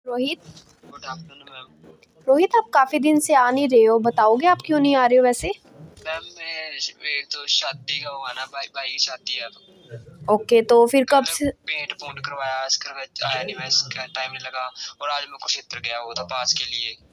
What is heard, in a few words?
call recording